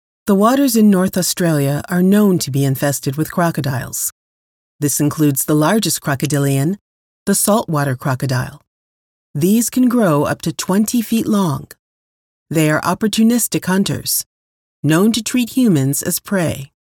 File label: documentary